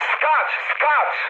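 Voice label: bailout, mood low, Polish speech; War Thunder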